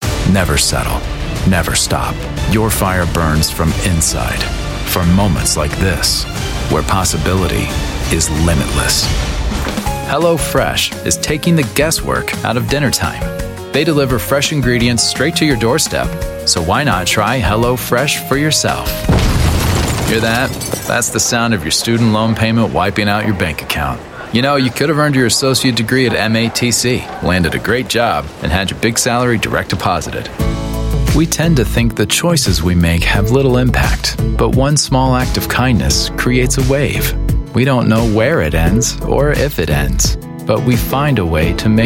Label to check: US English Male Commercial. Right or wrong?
Right